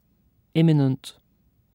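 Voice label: "imminent", British English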